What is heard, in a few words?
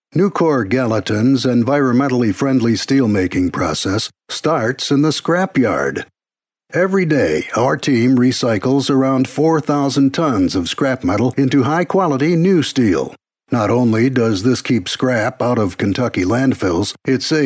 MULTI BAND PROCESSING; NUCOR GALLATIN